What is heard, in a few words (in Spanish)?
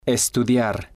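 "estudiar", spanish, male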